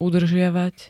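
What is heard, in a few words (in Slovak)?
"udržiavať", Slovak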